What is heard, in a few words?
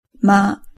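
"ma", Japanese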